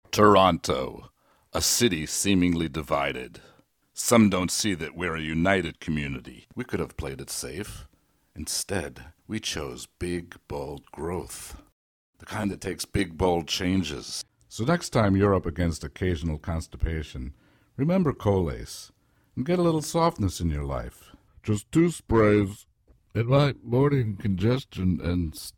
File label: commercial